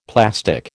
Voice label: "plastic", American

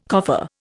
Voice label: "coffer", Indian

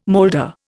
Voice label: "moulder", Indian

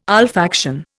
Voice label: "olfaction", Indian

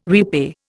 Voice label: "repay", Indian

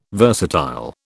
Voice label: "versatile", British

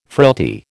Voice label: "frailty", American

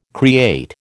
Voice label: "create", American

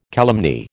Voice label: "calumny", American

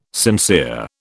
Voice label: "sincere", British

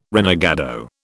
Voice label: "renegado", British

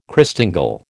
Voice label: "Christingle", American

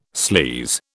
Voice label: "sleaze", British

